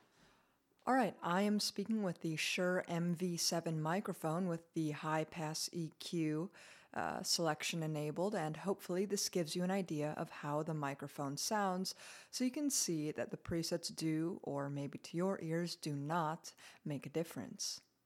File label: Shure MV7 manual high pass